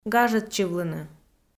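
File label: "гажӧдчывлыны", Komi, female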